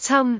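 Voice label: "cam1", Cantonese; microsoftHiuGaai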